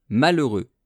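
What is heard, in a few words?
"malheureux", French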